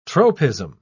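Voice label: "tropism", British English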